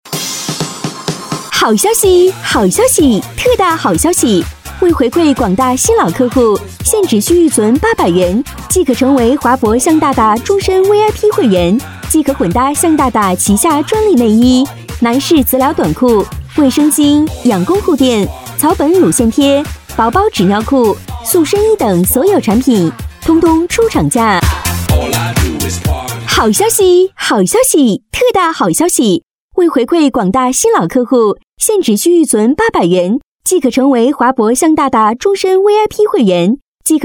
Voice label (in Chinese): 女 促销 好消息